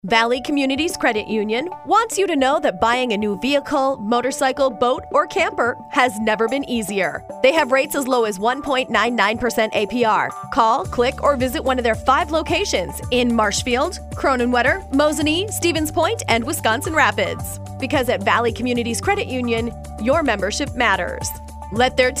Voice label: Radio Spot Auto Loans